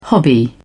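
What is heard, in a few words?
"hobby", British English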